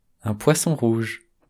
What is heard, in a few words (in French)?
poisson rouge, sounds-fr